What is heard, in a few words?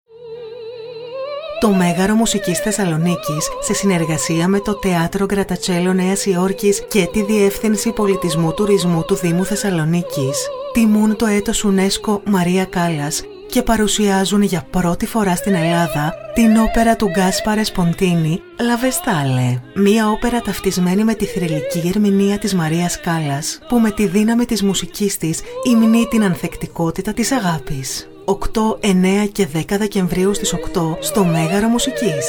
FEMALE VOICE HYPER